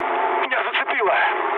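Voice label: Russian damaged pilot mood low, speech; War Thunder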